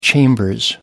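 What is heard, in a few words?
"chambers", British English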